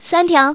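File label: "3 tiao", Chinese, female; 女; 欢乐麻将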